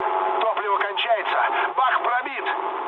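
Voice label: tank damaged, mood low, Russian; War Thunder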